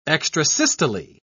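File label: "extrasystole", British English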